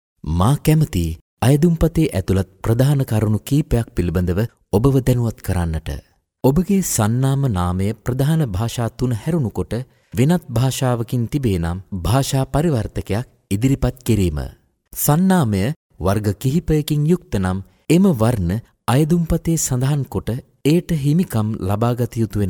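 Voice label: Sinhala male voice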